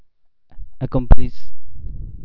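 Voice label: "accomplish", Nepalese, male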